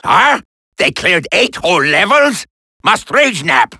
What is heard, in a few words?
angry; wario